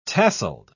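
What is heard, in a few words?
"tasselled", British English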